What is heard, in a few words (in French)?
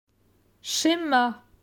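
"Schema", French; phonetique; la graphie ch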